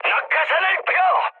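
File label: Korean bailout mood high, speech; War Thunder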